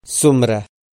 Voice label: "tsomra", Pashto

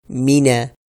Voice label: "meena", Pashto

